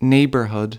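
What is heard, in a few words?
"neighbourhood", English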